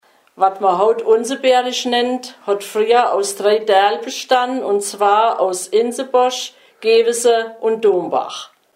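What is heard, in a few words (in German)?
ortsname, Hunsrück; Unzenberg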